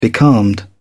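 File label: "becalmed", British English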